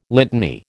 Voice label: "litany", American